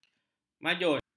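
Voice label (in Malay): "majun", Malay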